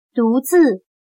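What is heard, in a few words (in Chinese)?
"独自", Chinese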